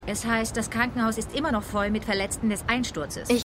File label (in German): Reporterin; Supergirl